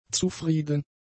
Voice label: "zufrieden", German; adjectives